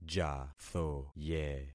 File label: "jathoye", concat syllables; Affixing experiment